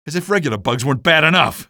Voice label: alert antlions, male; hl2; ep1

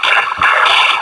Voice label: announce, combine soldier; hl2b

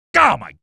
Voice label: shock, male

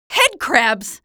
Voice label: alert head, female

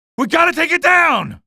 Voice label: alert gunship, male

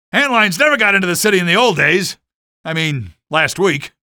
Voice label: alert antlions, male; hl2; ep1

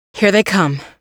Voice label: combat gradual quiet; hl2; ep1; alyx

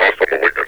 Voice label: hostile malignants, metropolice; hl2

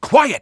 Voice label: quiet; hl2b; citizen

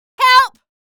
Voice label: alert antlions, female; hl2; ep1

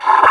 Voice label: pain, combine soldier; hl2

